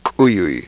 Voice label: velar ejective, quechua